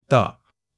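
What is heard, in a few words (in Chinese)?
"的", Chinese; HSK1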